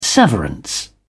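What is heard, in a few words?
"severance", British English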